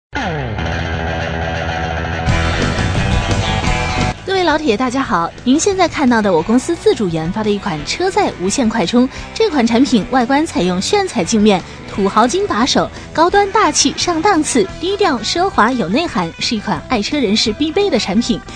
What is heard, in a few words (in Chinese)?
女 视频解说 车载无线快充